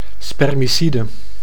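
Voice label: "spermicide", Dutch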